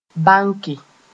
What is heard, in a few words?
"bãnke", Mooré